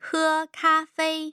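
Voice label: "he kafei", Mandarin Chinese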